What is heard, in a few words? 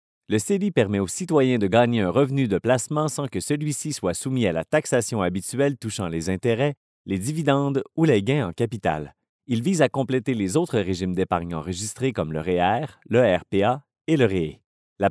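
French Canada male voice over, sales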